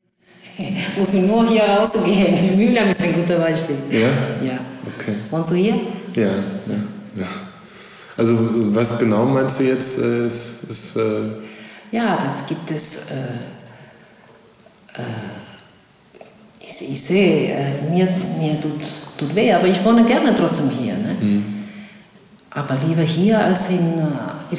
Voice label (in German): spanisch